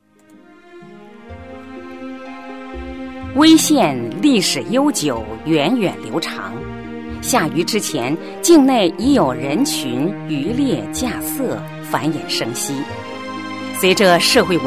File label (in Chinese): 女 大气专题, 成熟, 国语女声配音; 威县教育发展